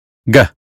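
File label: "give", American English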